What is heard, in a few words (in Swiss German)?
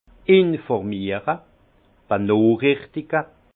"informiere", alsace; informer; vin